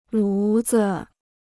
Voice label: "炉子", Chinese